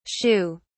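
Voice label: "shu", British English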